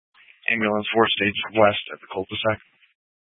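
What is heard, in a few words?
Incident Tactical 1, Scanner audio recording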